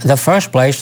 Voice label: LoFi Phrases, LoFi Vocals; Vengeance Samples

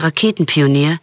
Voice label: LoFi Words, LoFi Vocals

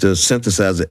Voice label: LoFi Words, LoFi Vocals

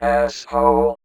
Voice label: Vocoder Words; Vengeance Samples

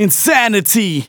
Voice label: Phrase Male Rap; Vengeance Samples

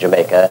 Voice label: LoFi Words, LoFi Vocals

